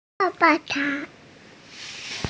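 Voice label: "juan1", Mandarin Chinese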